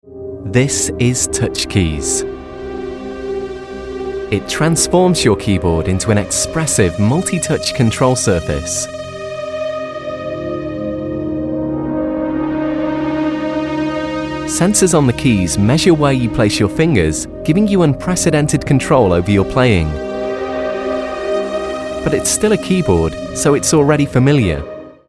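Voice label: Explainer Narration, male; Explainer Video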